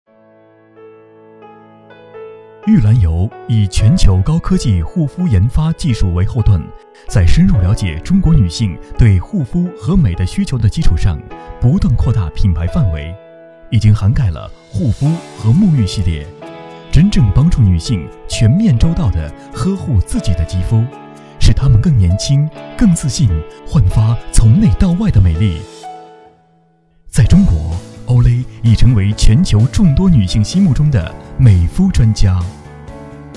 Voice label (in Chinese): 形象宣传